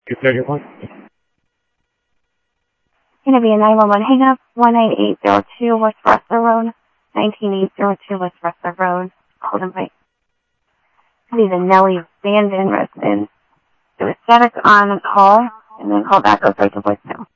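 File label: Sheriff West County, scanner audio trunk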